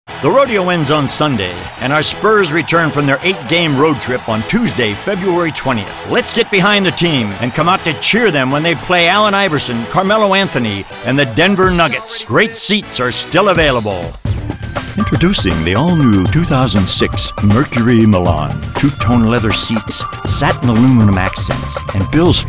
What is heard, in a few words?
English, english males